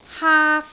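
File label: "haak1", Cantonese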